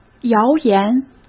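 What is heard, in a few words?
"谣言", Mandarin Chinese; chinese-flashcards; hsk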